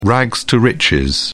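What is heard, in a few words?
"rags to riches", British English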